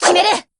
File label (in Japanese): shoot, jp; p4u; yukari